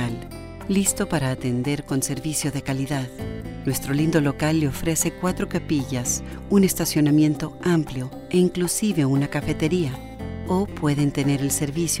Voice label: Funeral Sample Spanish, on hold message